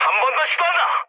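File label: Korean bomb failure mood med; War Thunder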